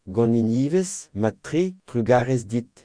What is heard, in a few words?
fine, Breton